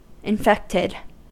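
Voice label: "infected", US English; pronunciations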